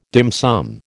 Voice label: "dim sum", American